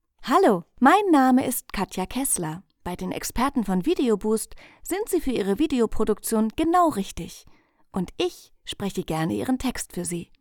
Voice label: Voiceover